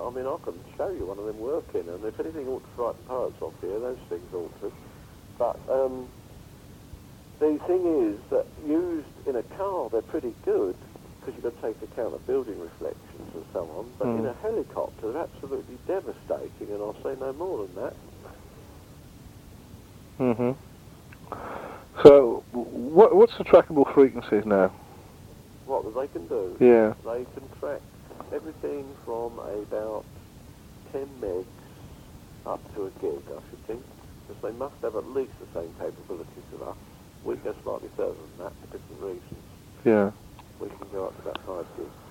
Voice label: studio link tracking conversation; The JFM Studio Raid 1985 that saw the demise of the Station in the second week of January